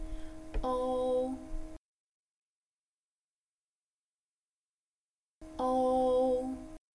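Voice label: pinyin O; pingyin-O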